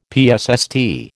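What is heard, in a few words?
"psst", American English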